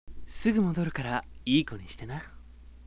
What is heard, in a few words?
sample sweet hi, voice